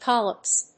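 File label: "collops", English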